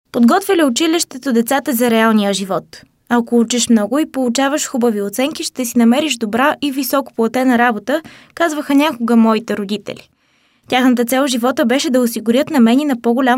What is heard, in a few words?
bulgarian voiceover locutora bulgara